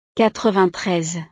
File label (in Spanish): "quatre-vingt-treize", frances